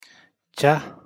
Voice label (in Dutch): "ཇ་", Dzongkha; thee-in-andere-talen